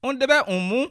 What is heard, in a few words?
i love you, bassa